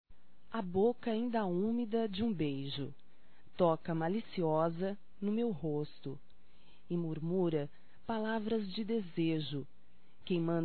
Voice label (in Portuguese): Romantica Feminino